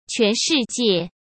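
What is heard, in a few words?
"全世界" Mandarin Chinese; hsk; chinese-flashcards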